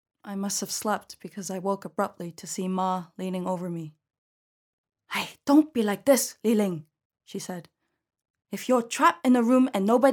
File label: Audiobook Reel